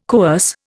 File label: "coerce", Indian